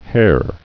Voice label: "hare", English